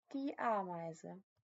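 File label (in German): "Ameise", German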